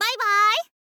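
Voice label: "bye bye", girl, Japanese; voice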